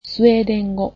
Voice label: Swedish, japanese

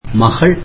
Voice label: tamil daughter

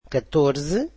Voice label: "fourteen", Portuguese

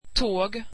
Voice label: swedish train

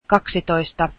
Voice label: twelve, finnish

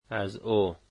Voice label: "her" Dari

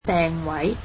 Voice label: "reservation", Cantonese

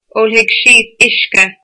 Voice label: irish she will drink water

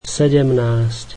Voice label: slovak seventeen

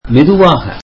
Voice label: tamil slowly

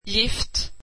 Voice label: swedish poison

